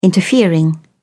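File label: "interfering", English, British English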